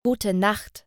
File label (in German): "Gute Nacht", German; hello in german